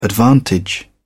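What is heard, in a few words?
"advantage", British English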